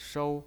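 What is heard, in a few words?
"shou", Mandarin Chinese pinyin, first tone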